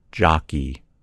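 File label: "jockey", American English; gcompris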